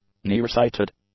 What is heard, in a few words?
"nearsighted", english; adjectives